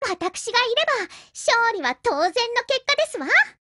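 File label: WIN Japanese, Voice; GF Alarm; Kolibri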